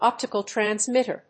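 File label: "OPTICAL TRANSMITTER", English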